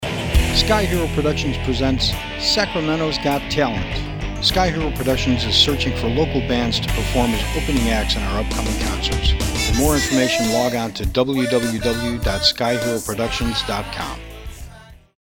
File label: radio ad; Sky Hero Productions; Sacramento's Got Talent